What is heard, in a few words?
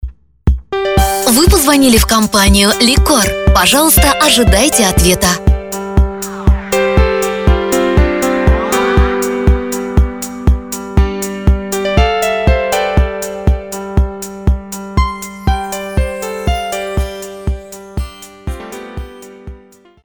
polish ivr